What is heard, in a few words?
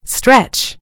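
"stretch", American English; gcompris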